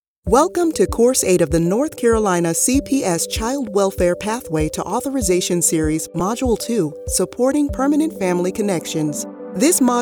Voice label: E-LEARNING